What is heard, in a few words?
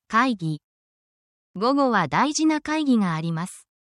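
Japanese word, japanese phrases; essential japanese phrases for your workplace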